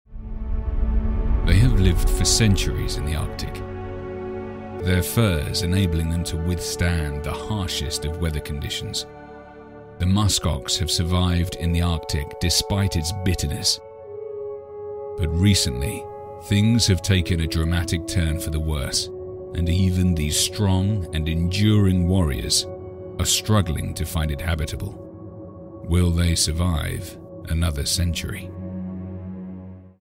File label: english british documentaries